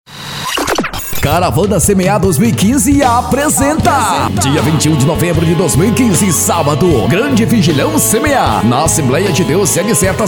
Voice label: spot; semear

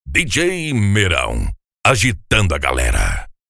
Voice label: vinheta voice over

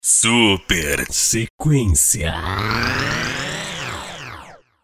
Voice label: locutor; super sequencia

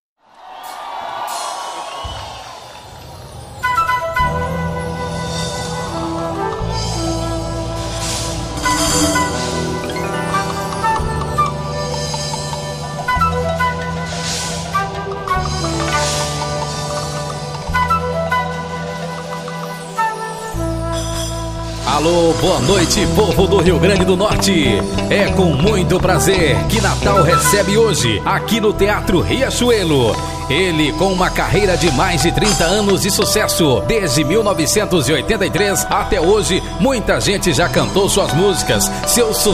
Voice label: abertura locucao

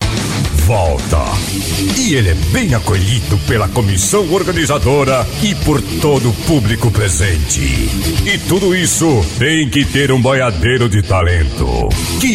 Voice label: locutor de rodeio

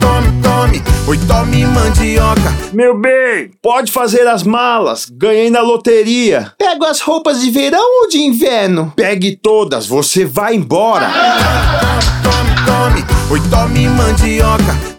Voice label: spot piada; riso do radio; rei da mandioca

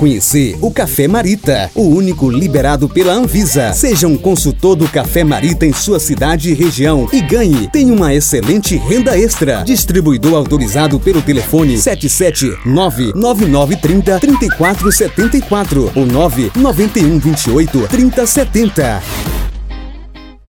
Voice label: spot cafe; cafe marita

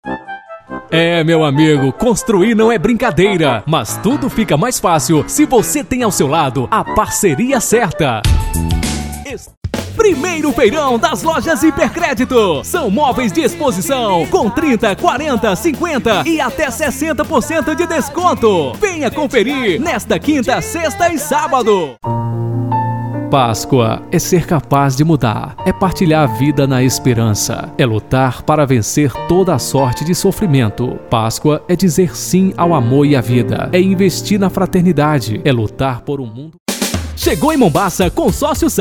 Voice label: comercial

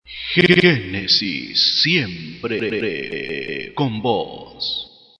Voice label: vinheta outono espanhol

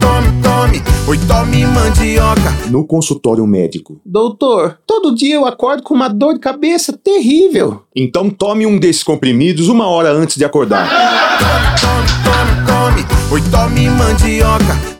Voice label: spot piada; rei da mandioca; riso do radio

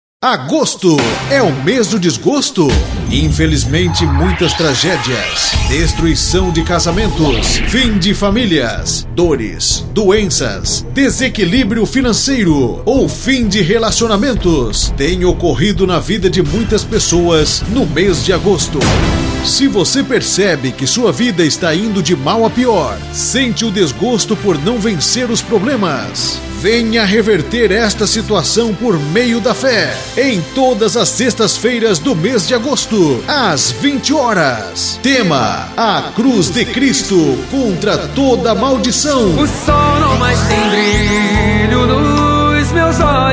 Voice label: chamada igreja do evangelho quadrangular

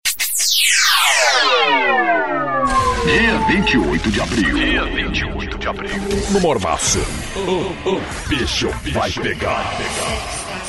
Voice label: demo locutor

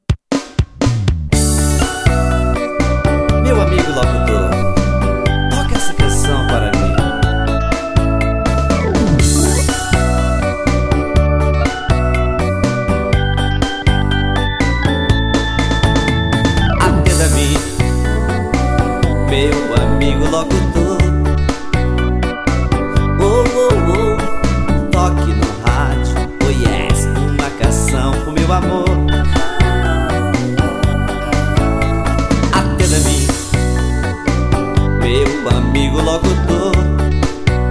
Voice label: locutor

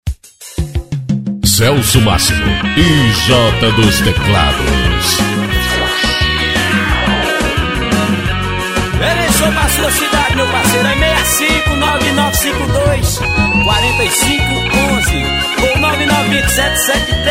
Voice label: locutor

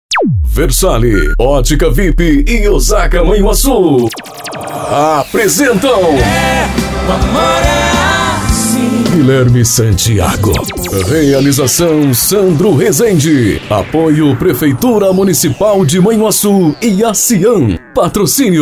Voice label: spot

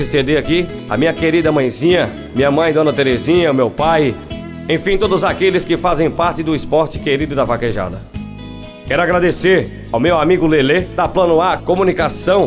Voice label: Locutor agradecimento

